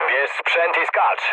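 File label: bailout, mood low, Polish speech; War Thunder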